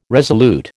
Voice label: "resolute", American

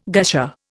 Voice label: "gusher", Indian

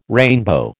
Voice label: "rainbow", American

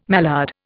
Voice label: "mallard", Indian

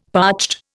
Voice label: "parched", Indian; Dictionary

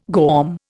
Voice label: "GOM", Indian

